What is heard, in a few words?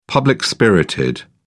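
"public-spirited", British English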